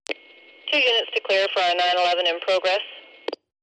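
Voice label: Police Dispatcher Fem 911 In Progress, female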